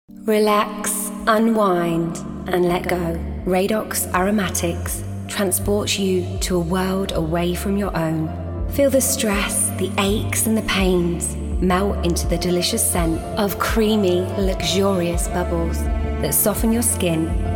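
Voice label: English UK Commercial; voice demos; GoLocalise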